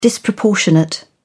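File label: "disproportionate", British English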